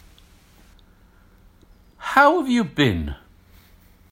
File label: How have you been UK